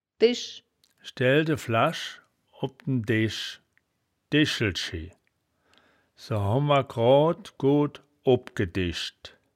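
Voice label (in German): "desch", wittlicher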